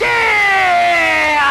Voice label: "yeah" scratch